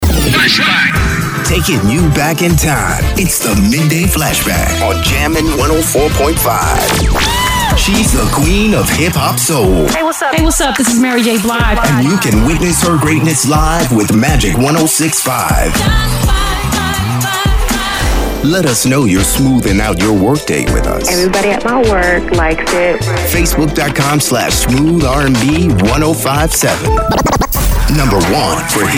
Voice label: voice over radio imaging